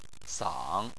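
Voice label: "sang" tone 3, Chinese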